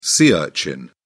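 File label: "sea urchin", English, British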